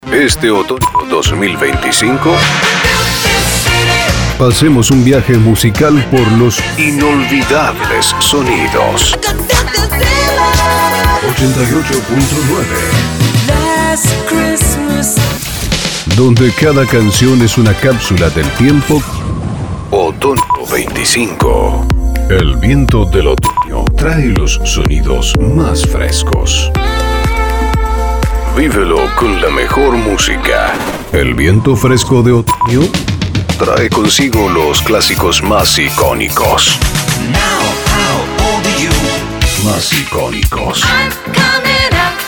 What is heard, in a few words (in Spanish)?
RETRO NEUTRO; otono